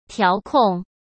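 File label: "调控", Mandarin Chinese; chinese-flashcards; hsk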